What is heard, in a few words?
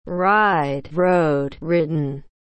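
"ride", English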